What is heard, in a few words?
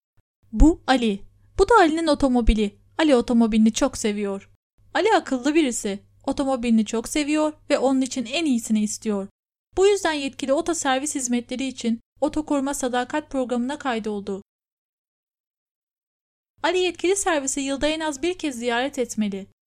Turkish Introduction Voice over; Lala Web